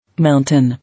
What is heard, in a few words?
"Mountain", English